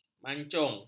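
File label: "mancung", Malay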